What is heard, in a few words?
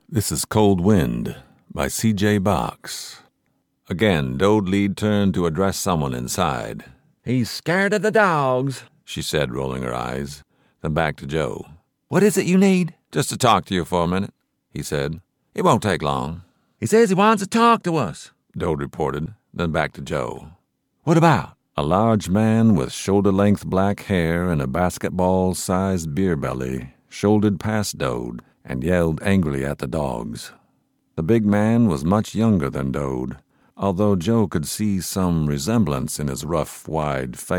Audio Bk Voice Over